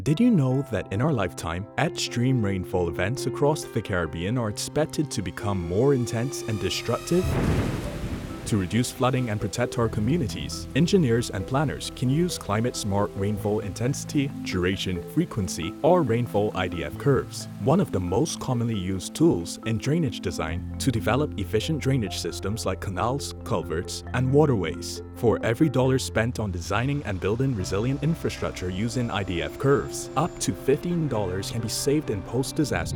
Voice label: Radio PSA; CIMH Rainfall IDF Curves